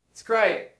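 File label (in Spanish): Voice Acting, Spanish, male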